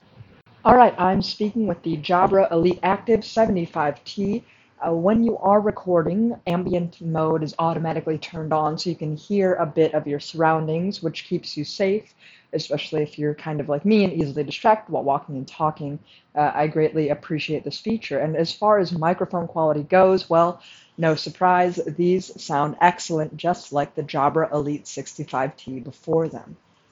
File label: Jabra Elite Active 75t microphone